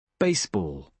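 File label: "baseball", British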